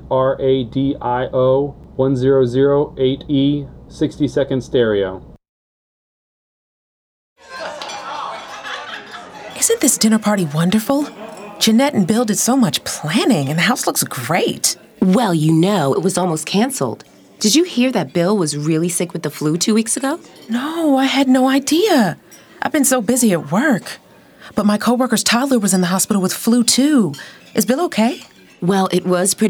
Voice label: radio; dinner party; flu